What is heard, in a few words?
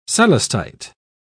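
"celestite", British English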